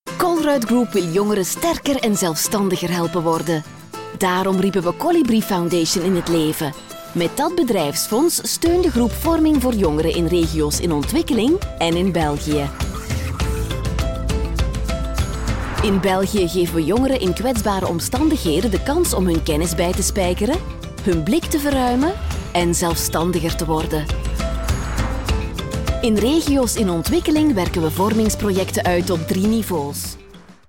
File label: Webvideo dynamic fresh; Colruyt Group